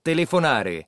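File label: "telefonare", Italian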